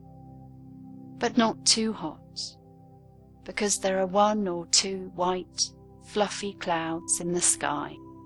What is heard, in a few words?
child meditation